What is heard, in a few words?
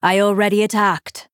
Female Night Elf ERROR I ATTACKED